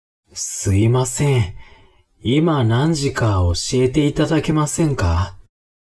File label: man